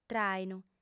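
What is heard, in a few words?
"tràinu", Sardinian, female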